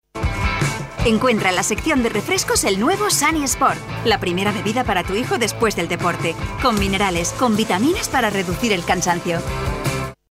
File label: female spanish juice comercial; ideasonora